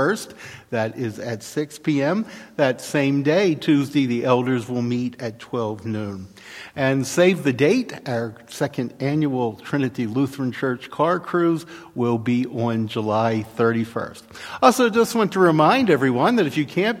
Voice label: Welcome and Announcements